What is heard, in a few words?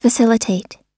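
"facilitate", English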